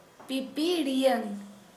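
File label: Santali female butterfly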